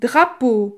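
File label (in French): "drapeau", French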